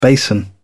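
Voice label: "basin", British English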